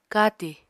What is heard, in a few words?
"katì", Apatani